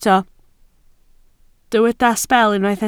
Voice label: "sbel", Welsh

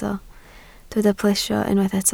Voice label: "plesio", Welsh

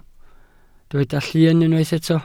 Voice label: "llun", Welsh

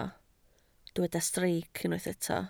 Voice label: "streic", Welsh

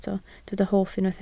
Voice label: "hoff", Welsh

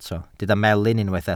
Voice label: "melyn", Welsh

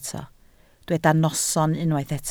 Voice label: "noson", Welsh

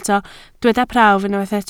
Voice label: "prawf", welsh

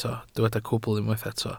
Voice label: "cwbl", welsh

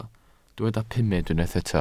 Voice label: "pumed", welsh

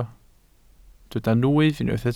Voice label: "nwyf", welsh

